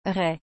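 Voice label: "raie", French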